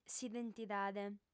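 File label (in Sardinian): "s'identidade", female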